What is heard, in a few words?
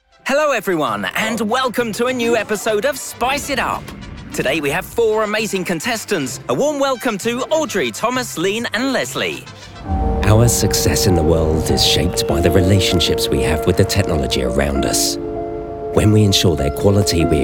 UK Voicereel